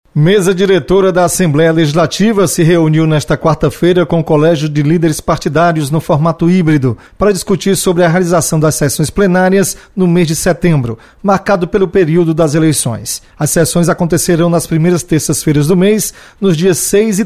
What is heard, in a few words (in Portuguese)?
notícias, radio fm; Reunião / Mesa Diretora define realização de sessões plenárias neste mês de setembro; (1'23")